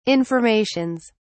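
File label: "informations", British English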